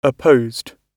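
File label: "opposed", British English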